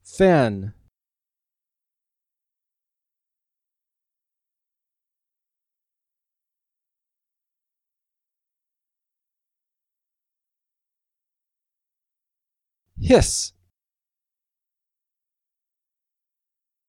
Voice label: Short Vowel; All We Can Read